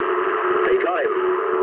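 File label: aircraft destroyed mood low, speech English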